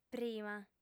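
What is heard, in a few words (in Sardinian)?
"prima", female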